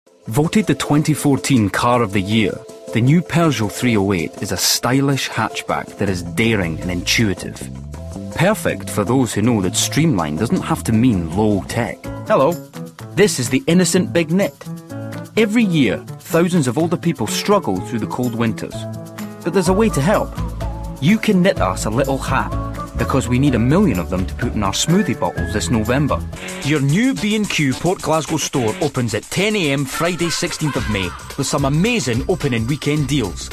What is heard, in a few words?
Commercial